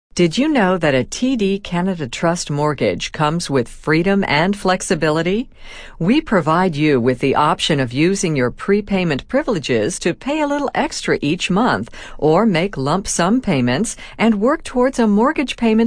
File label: female